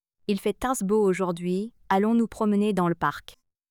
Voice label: lang french; supertonic